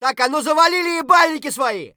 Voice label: silence; Escape from Tarkov; scav3